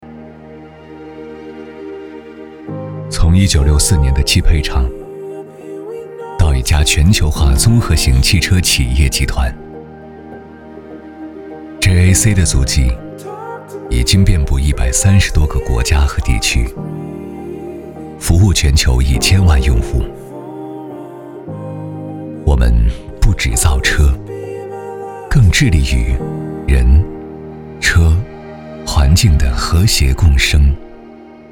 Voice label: 男 专题; JAC

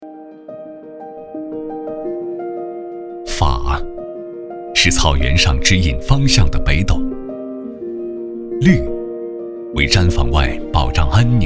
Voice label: 男 专题; 新左旗未检

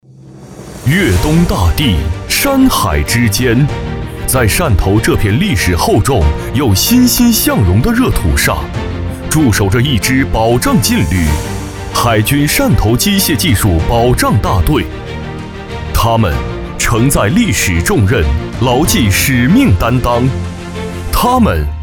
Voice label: 男 大气磅礴; 潮起粤东

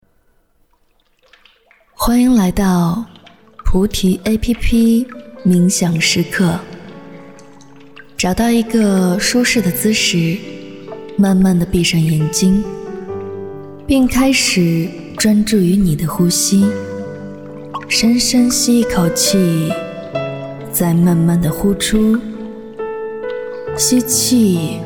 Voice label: 女 冥想 舒缓

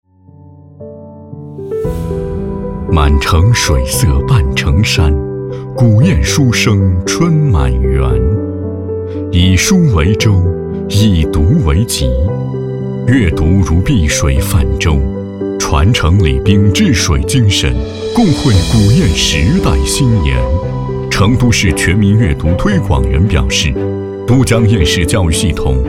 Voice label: 男 成都阅读; 满城水色半城山

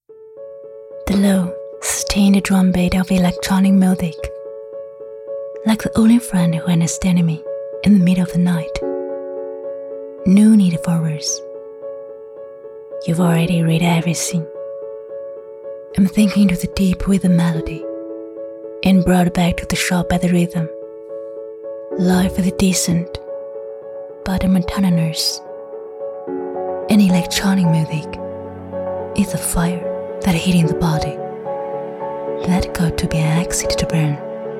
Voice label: 女 英文 TVC

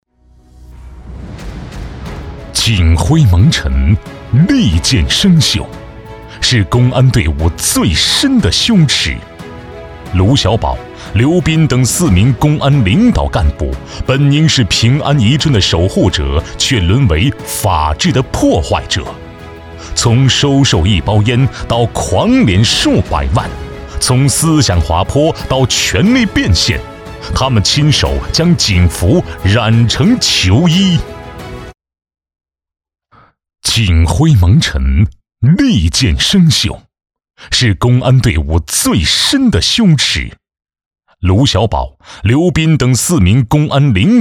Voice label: 男 警示教育片

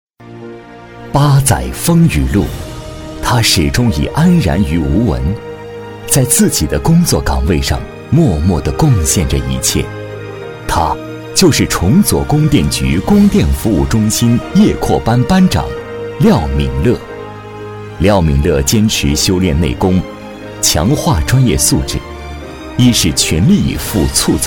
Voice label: 男 人物介绍 讲述 客观

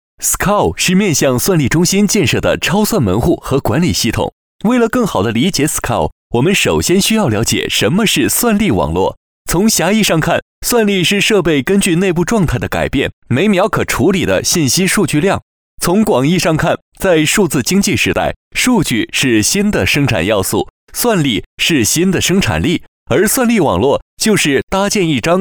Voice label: 男 企业宣传

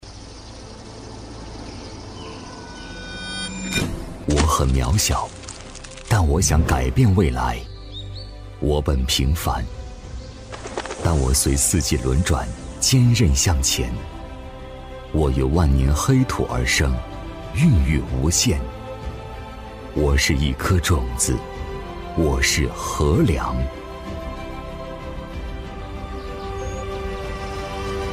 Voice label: 男 企业 沉稳 大气; 和粮

